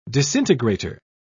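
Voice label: "disintegrator", English, British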